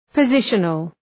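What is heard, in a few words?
"positional", English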